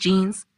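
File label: "Jeans", English; clothes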